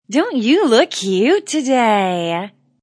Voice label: Wmn Sys Dnt U Lk Ct Anm, Woman Says Don't You Look Cute Animated; Talking Sound Effects